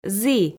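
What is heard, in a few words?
"зі", Komi, female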